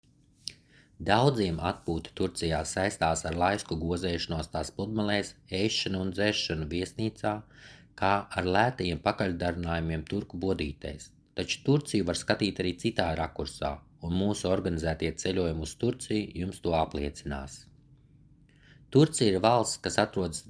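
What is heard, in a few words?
male voice, General, Latvian; GoLocalise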